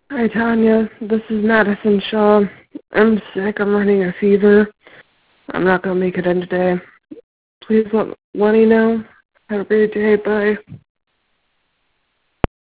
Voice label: voicemail